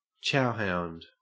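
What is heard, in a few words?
"chowhound", Australian English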